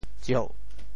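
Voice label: "tsieuh4", Teochew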